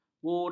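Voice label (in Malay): "burai", Malay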